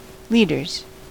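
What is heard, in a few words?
"leaders", American English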